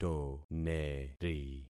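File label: "toneri", concatenated syllables; Affixing experiment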